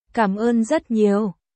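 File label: Vietnamese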